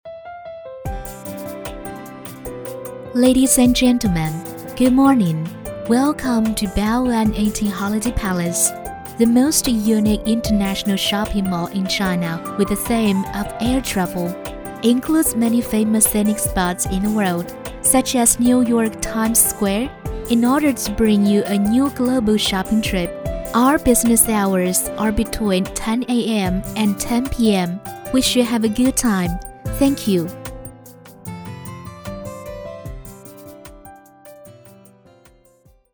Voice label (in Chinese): 英女 英语广播 温柔商场